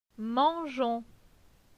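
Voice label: "Mangeons", French; phonetique; les consonnes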